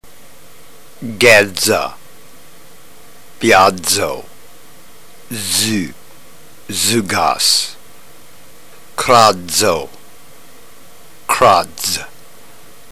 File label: drill, ntgreek